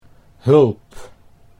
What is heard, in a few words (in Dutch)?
"hulp", Dutch